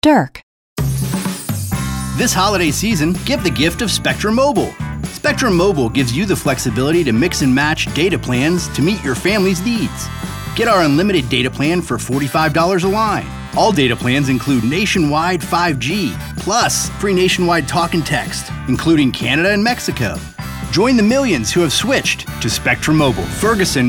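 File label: Showcase, voice-over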